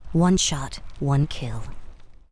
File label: Hippogryph with rider yes attack